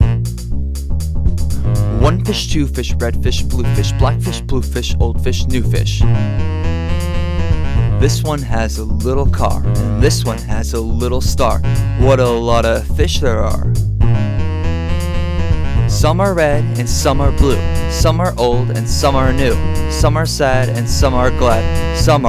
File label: poem